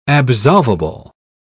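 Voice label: "absolvable", British English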